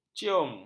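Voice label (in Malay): "cium", Malay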